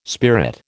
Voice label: "spirit", American

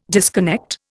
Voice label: "disconnect", Indian

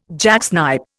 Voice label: "jacksnipe", Indian

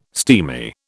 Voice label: "steamy", British

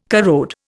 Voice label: "corrode", Indian

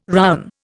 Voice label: "rowen", Indian

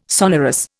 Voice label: "sonorous", Indian

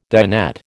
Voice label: "dinette", American

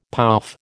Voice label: "pouf", American

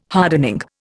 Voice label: "hardening", Indian

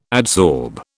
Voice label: "adsorb", British

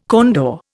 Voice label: "condor", Indian

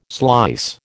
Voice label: "slice", American

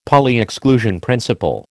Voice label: "Pauli exclusion principle", American

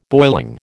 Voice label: "boiling", American

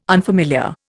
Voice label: "unfamiliar", Indian

